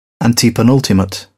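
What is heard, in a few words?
"antepenultimate", British English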